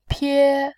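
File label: "pie", Mandarin, tone 1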